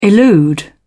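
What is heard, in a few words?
"elude", British English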